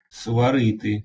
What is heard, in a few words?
"swariti", Ukrainian